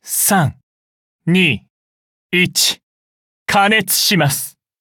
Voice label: upgrade, ja; cookie run

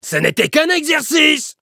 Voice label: victory, fr

